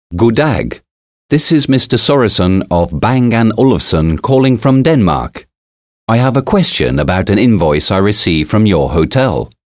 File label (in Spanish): curso interactivo hoteles ingles; Employees course recording in english; English voiceover